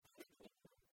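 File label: "тівкнитны", Komi, female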